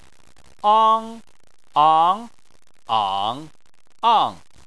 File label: "ang", Chinese